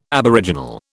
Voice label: "aboriginal", British